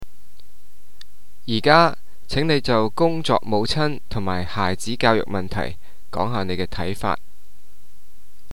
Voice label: Cantonese, seminar that discusses issues about working mother; 廣東話提示